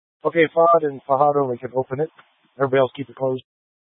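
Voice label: Incident Tactical, scanner audio recording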